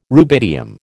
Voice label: "rubidium", American

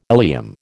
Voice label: "ileum", American

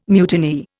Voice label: "mutineer", Indian

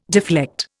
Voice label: "deflect", Indian

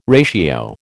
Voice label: "ratio", American

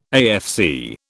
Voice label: "AFC", British

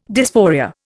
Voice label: "dysphoria", Indian

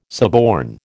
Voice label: "suborn", American

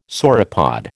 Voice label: "sauropod", American

